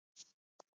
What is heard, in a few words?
voice message